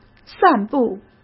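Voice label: "散步", Mandarin Chinese; hsk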